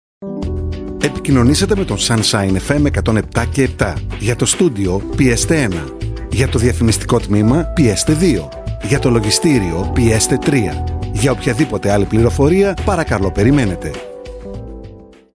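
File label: Greek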